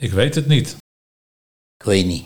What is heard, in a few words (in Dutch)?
"Ik weet het niet - Kwinie", Nieuw lekkerlands dialect; Kwitnie, kwinnie